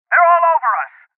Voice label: Voices Heavy Losses Male